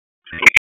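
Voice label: Police Southwest District Tac, radio; Raleigh; wake; p25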